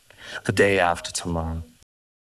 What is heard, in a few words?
Voice Acting, es, M